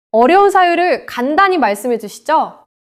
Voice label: neutral, monologue